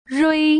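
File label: "rui", Putonghua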